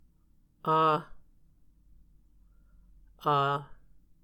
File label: "a", Muscogee